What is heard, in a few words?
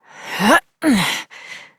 Horse Mount Adult, Dialog; Duviri